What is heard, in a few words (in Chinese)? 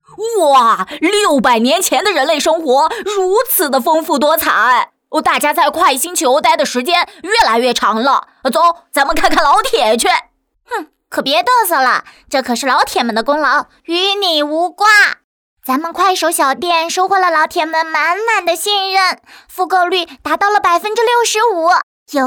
男女童声; 快手; 快星球